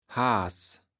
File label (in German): "Haas", Platt